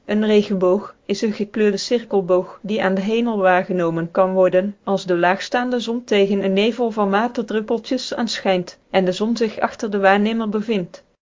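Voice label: speaker, American English; piper-voices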